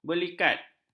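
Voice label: "belikat", Malay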